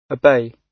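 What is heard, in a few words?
"obey", British English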